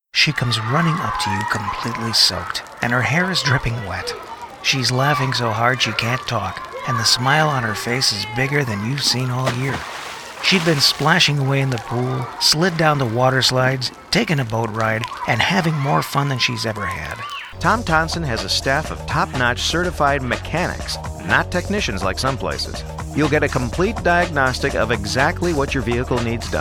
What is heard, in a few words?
Comercial VO